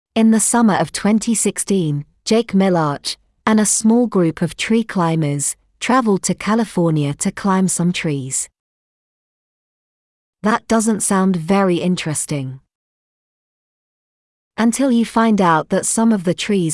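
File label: British; climb tallest trees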